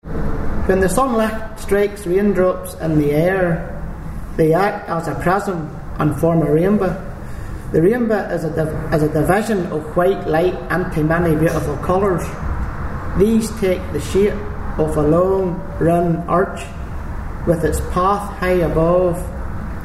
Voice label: passage, dialects ni